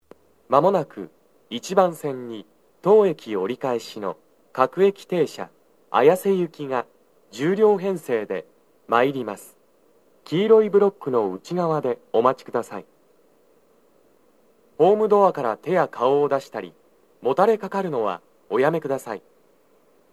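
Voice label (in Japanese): 北綾瀬 1番線 接近放送, 男声, 各駅停車 綾瀬行 (10両編成), JVC横長型での収録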